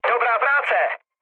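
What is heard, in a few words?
player killed enemy, Czech, mood low; speech; War Thunder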